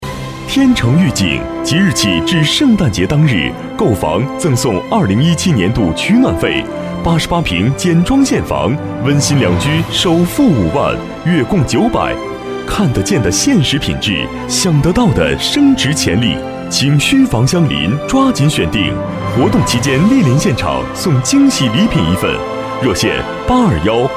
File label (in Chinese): 广告 房地产